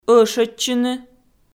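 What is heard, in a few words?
"ӧшӧдчыны", Komi, female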